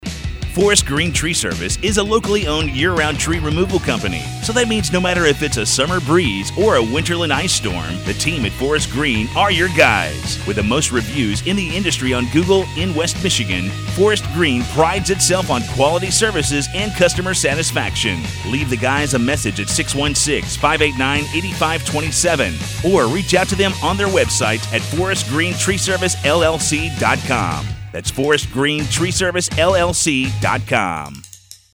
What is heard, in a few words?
commercial